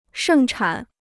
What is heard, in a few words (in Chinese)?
"盛产", Chinese